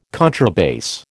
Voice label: "contrabass", American